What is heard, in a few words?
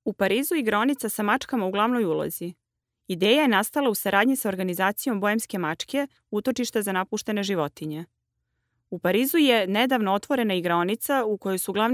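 Montenegrin, female; montenegro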